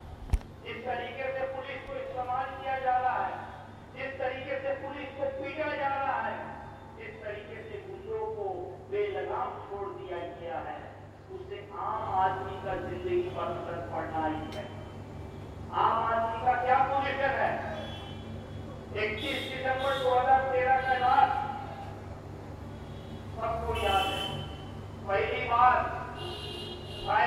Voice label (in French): Inde Kolkata discours politique